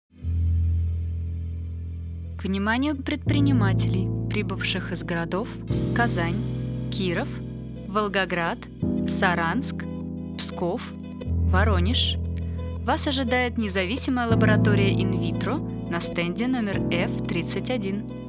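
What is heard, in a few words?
presentation russian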